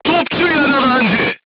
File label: voice message gunlayer wounded, crew tanks turkish